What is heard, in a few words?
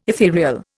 "etherial", Indian